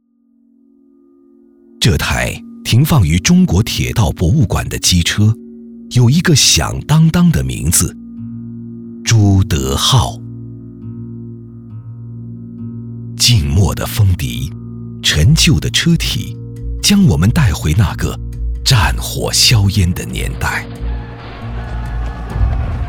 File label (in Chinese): 男 建党百年样音 党史纪录片 机车; 朱德号